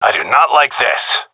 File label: scared, seal diver; Source Model Packs; Counter Strike Model Pack; CS2